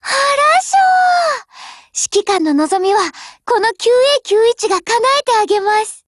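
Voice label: HELLO Japanese, Voice; GF Alarm; 9A91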